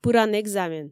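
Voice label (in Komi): пыран экзамен, Komi, female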